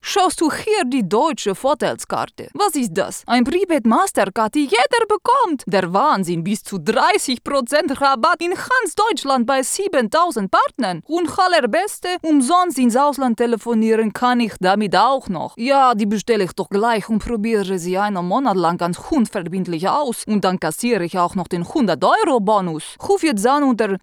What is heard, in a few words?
polish accent in german; Dialects